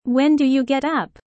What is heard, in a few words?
low